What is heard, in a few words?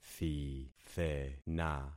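"thithena", concatenated syllables; Affixing experiment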